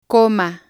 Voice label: "coma", spanish